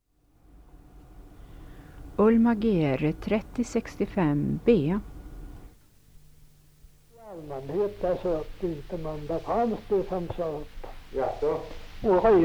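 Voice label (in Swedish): Heda Östergötland, dialekter; Folkminnen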